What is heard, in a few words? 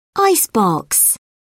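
"icebox", British English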